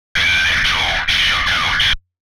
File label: ELECTRO MALE 140 A, Vocals